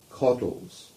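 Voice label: "Coddles" English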